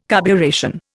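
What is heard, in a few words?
"carburation", Indian English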